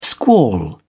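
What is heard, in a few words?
"squall", English, British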